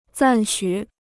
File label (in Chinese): "赞许", Chinese